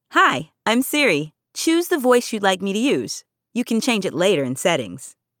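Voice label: US English; en-US; iOS Sounds; simone; Buddy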